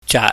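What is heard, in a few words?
"jaat", Cantonese, mid level tone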